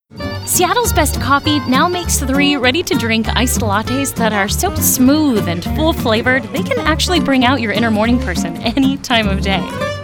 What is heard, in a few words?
Commercial